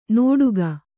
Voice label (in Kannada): "ನೋಡುಗ", Kannada